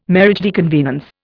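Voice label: "mariage de convenance", Indian English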